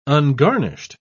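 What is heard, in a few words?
"ungarnished", English, uk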